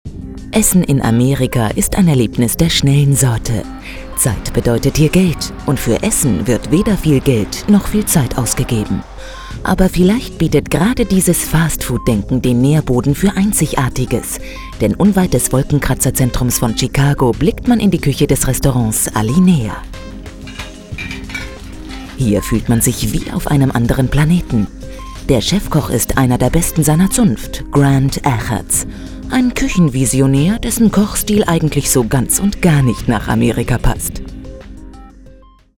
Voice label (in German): Doku Lifestyle